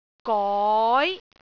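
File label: "goi2", Cantonese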